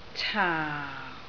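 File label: "caau4", Cantonese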